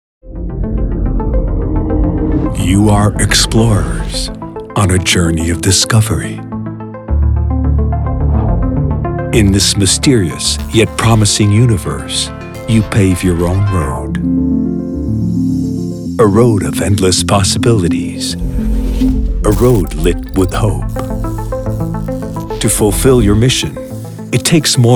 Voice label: Male 40 50 years Low, english international

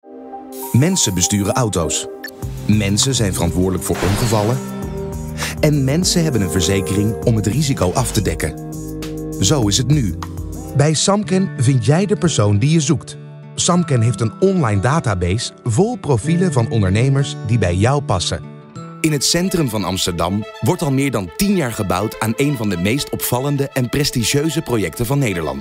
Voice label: Male 30 40 years Low, dutch netherlands

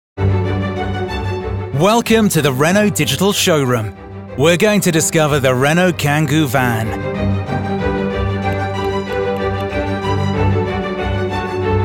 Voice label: Male 25 35 years Medium High

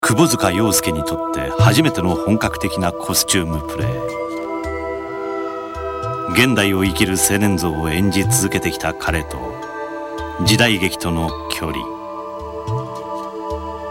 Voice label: Male 45 55 years Medium, japanese japan

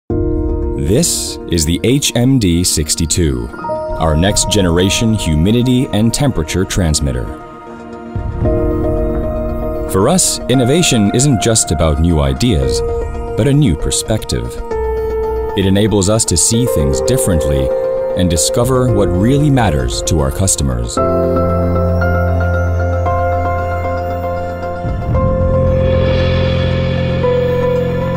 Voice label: Male 25 35 years Medium, english international

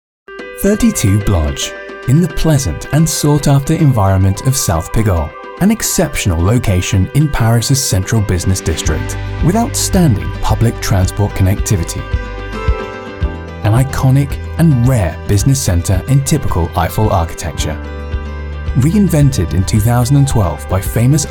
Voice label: Male 30-40 years Medium

